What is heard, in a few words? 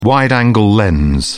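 "wide-angle lens", British English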